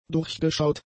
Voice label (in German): "durchgeschaut", German; adjectives